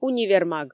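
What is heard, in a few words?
"универмаг" Komi, female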